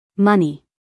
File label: "money", US female; everyone-can-use-english